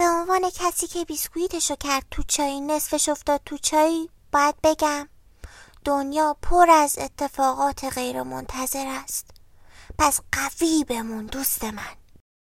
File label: narrator